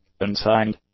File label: "unsigned", english; adjectives